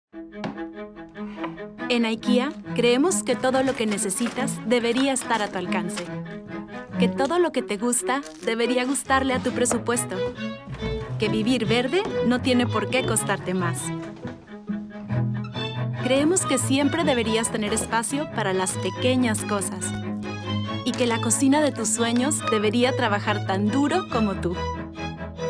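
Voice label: Spanish, voiceover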